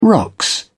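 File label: "rocks", British English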